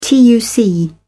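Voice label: "tuc", British English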